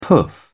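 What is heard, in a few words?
"poof", British English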